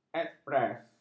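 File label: "ekspres", Malay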